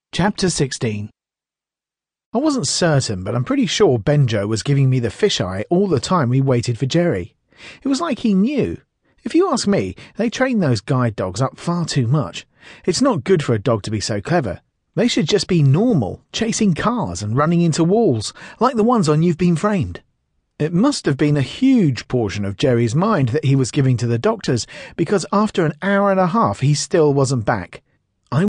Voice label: audiobook narrated